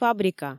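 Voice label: "фабрика" Komi, female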